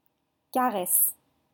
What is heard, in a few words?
"caresses", French